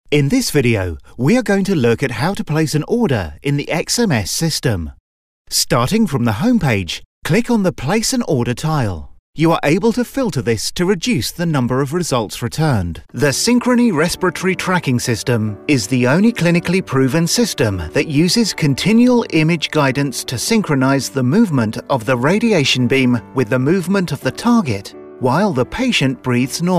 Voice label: corporate

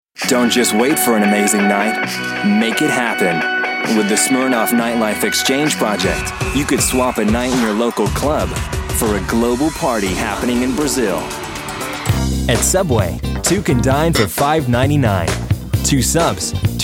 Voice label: young american, montage

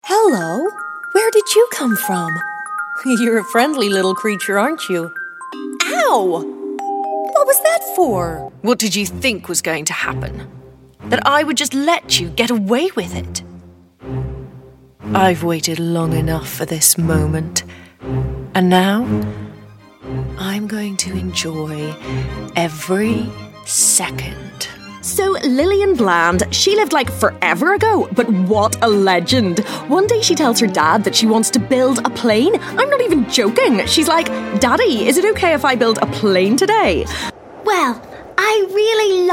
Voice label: irish voice character

